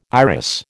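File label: "iris", American English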